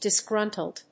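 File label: "disgruntled", English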